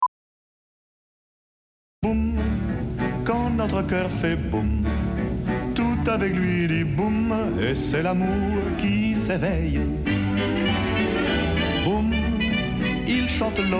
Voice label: spot; Galbusera